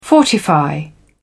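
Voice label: "fortify", English, British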